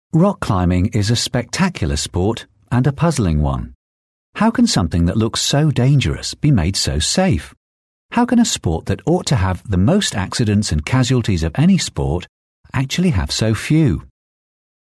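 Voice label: English, British, male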